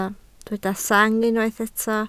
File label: "sang", Welsh